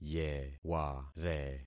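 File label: "yeware", concatenated syllables; Affixing experiment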